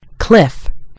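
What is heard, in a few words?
"cliff", American English; gcompris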